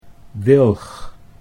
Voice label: "wilg", Dutch

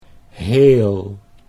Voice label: "heel", Dutch

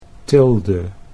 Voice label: "tilde", Dutch

